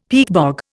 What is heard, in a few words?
"peatbog", Indian; Dictionary